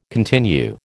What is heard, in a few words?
"continue", American